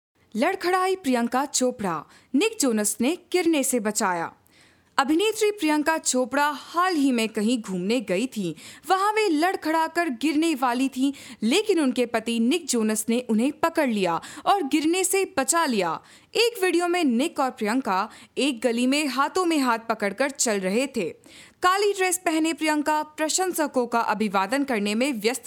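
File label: Hindi, Documentary; GoLocalise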